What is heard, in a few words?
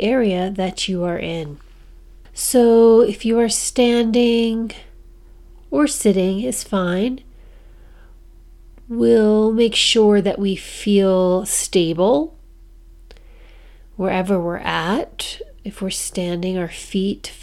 Forest Audio Meditation